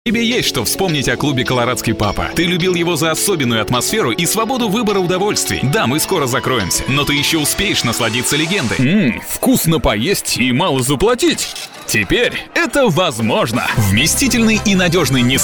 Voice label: male russian voice over talent sales

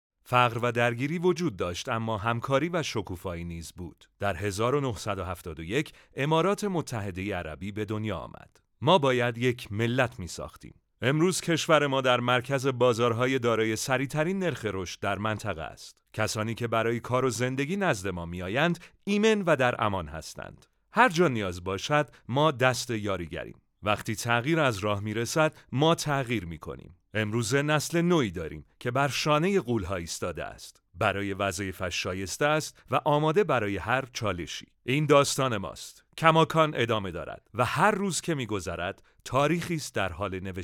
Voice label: male farsi voice over talent narrative

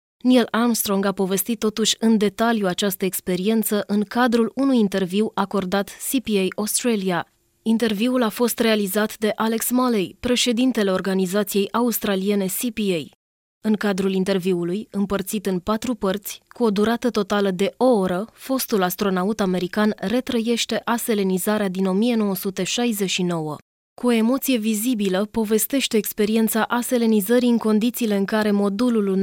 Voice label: female romanian voice over narrative

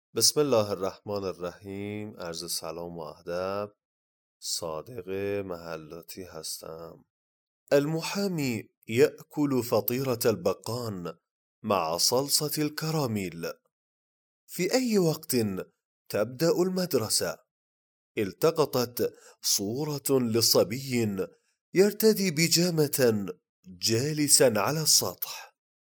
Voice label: male arabic voice over narrative

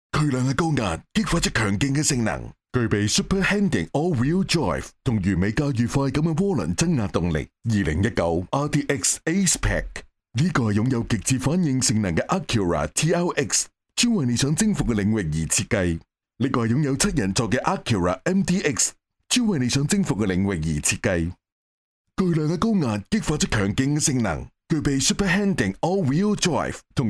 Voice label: male cantonese voice over sales

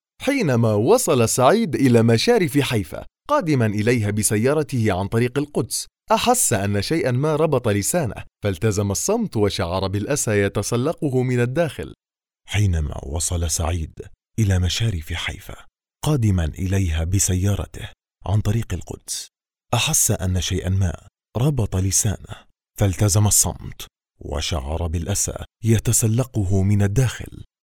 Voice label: male arabic voice over sales

